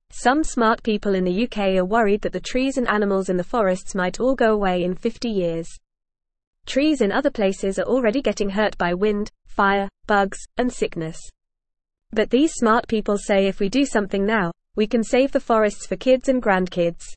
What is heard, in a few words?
English Newsroom Beginner FAST Reading; Smart People Want to Save the Forests